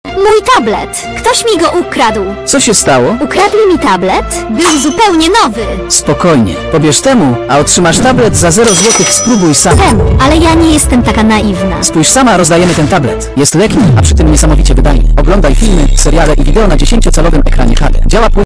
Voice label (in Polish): reklama; temu